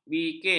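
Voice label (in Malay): "bikin", Malay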